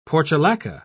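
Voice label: "portulaca", British English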